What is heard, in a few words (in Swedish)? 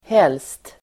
"helst", Swedish